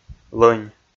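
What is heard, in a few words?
"лӧнь", Komi, male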